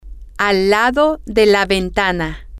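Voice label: next to the window, Spanish, female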